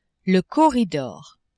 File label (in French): "corridor", French; maison